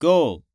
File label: Japanese Number